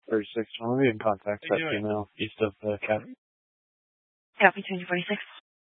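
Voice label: Police Dispatch, Scanner audio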